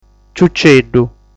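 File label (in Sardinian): "Ciuceddu", Sardinian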